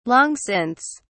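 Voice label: "long since", British English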